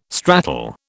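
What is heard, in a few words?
"stratal", British English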